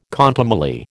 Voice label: "contumely", American